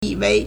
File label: "yi3 wei2", Chinese